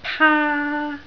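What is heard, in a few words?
"paa1", Cantonese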